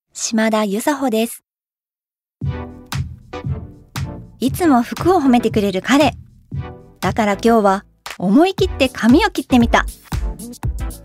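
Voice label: commercial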